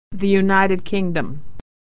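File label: "the UK", American English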